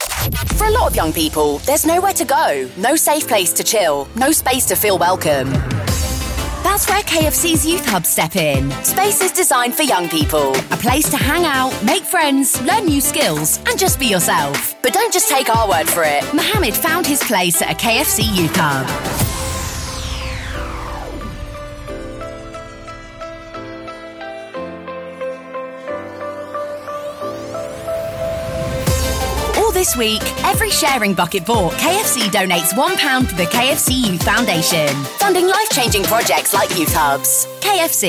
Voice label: promo; kiss x kfc